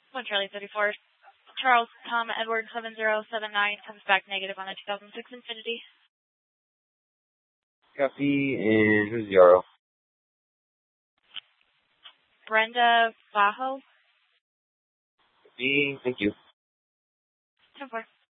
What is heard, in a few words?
Mesa PD Central Dispatch, scanner